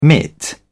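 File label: "mitt", British English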